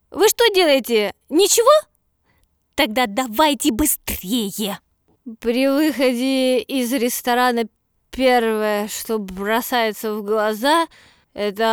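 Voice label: Russian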